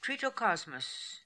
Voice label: "Tritocosmos", pronounciations; Fourth Way; Beelzebub